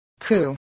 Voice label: "coup", voice spelling